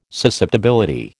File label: "susceptibility", American